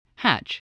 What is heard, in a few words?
"hatch", voice